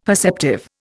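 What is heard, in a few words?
"perceptive", Indian English; Dictionary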